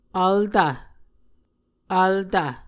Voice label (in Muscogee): "altv", Muscogee